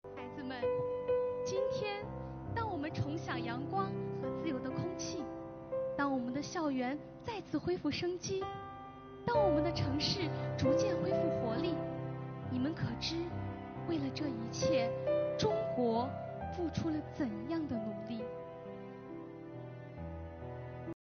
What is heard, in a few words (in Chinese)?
朗诵